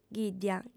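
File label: "ghìddia", Sardinian, female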